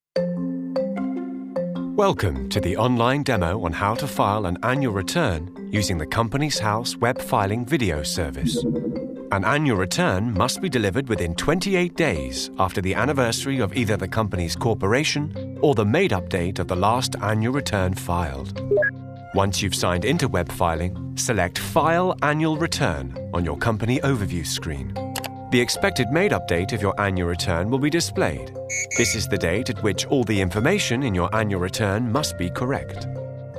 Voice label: corporate narration